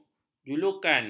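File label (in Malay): "julukan", Malay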